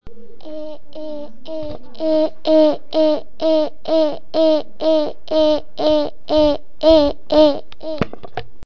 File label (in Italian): "E", si dice con la bocca un po' chiusa